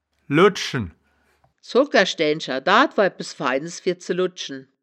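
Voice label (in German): "luddschen", wittlicher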